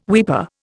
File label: "weeper", Indian